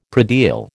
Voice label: "predial", American

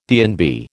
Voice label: "DNB", American

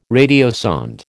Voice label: "radiosonde", American

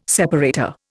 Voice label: "separator", Indian

